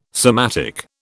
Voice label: "somatic", British